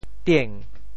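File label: "tieng2", Teochew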